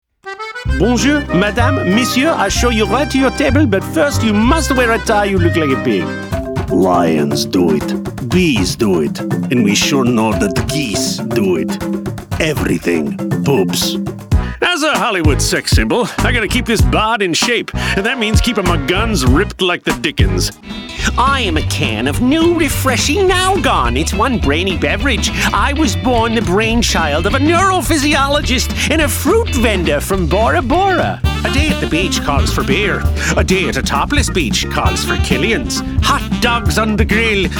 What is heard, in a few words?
VO Character